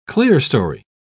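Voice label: "clerestory", British English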